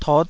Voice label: "tot5", taiwanese Syllables